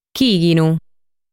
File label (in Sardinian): "Chìghinu", sardu